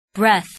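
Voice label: "breath", English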